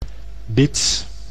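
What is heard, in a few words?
"bits", Dutch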